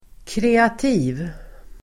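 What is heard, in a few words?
"kreativ", Swedish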